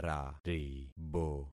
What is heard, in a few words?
"raribo", concat syllables; Affixing experiment